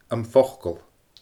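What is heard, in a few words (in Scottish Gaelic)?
"Am Focal", Scottish Gaelic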